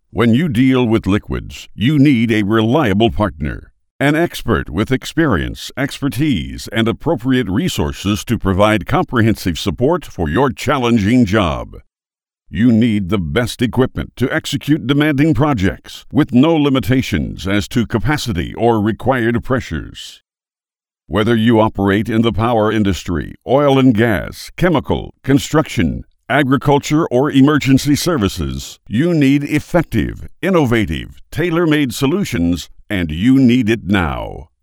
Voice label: us male; lektoring